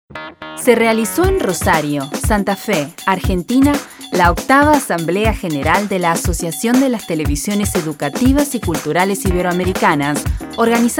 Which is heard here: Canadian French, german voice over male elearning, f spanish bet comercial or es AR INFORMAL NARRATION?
es AR INFORMAL NARRATION